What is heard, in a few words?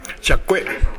"yakkoe", minamiyamagata; やわらかい。